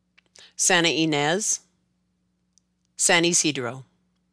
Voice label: Sta Ynez to San Isidro, Spanish words used in English